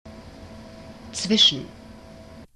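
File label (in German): "zwischen", German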